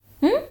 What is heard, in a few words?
speak princess; hyperrogue